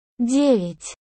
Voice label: Nine russian